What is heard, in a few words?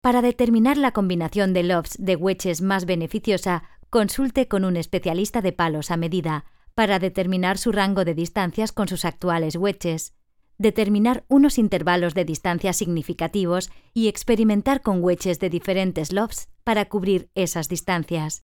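Spanish Spain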